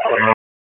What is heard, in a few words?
Raleigh Police Northeast District Tac, p25; wake